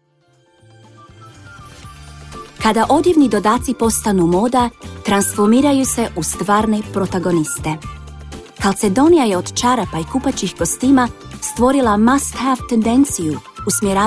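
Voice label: Croatian Corporate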